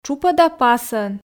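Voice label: "тшупӧда пасӧн", Komi, female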